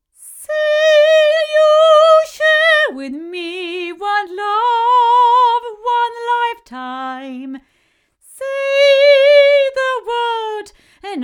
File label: AEA R84 female